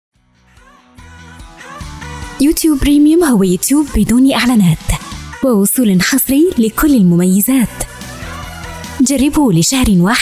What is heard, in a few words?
commerical arabic; Commerical demoreel